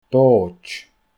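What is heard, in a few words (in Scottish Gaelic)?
"Booid", Scottish Gaelic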